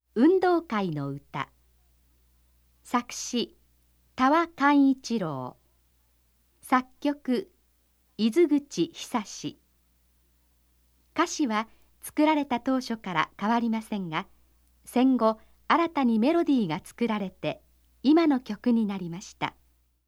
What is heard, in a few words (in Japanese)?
ナレーション